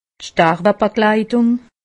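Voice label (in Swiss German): "starwebegleitung", Alsatian; medecine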